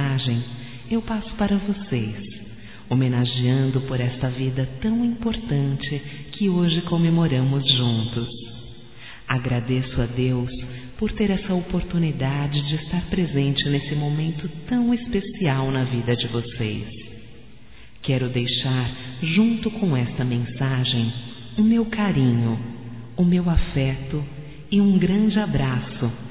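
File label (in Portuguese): voz, Parabéns ao Casal pelo Aniversário Criança, female, Telemensagens; Telemensagens 2017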